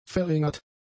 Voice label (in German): "verringert", german; adjectives